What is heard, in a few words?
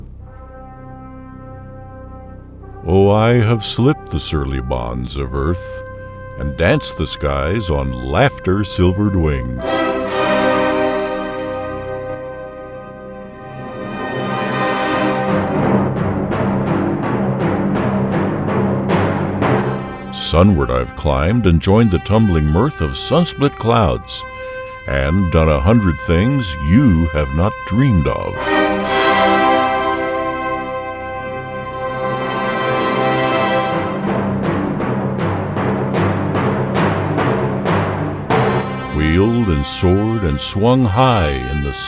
narration